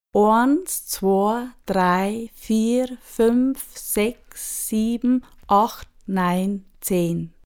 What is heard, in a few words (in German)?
Südtirol Zahlen